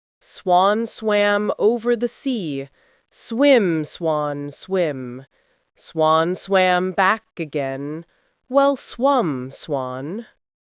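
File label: female us; Swan Swam Over the Sea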